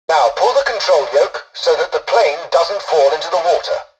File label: tutorial dialogue, english; War Thunder